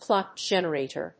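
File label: "clock generator", English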